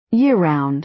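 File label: "year-round", American English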